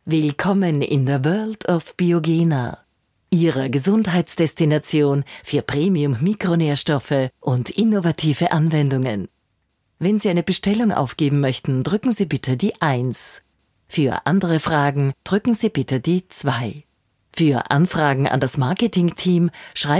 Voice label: ivr